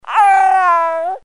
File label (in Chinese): 婴儿说话